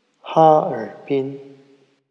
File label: Harbin pronunciation